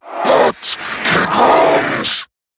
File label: Cover Me, en; Zariman Wraith